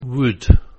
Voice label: "wood", pronunciation